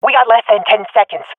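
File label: ten seconds remaining, female; Counter Strike Model Pack; CS:GO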